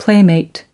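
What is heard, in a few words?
"playmate", US English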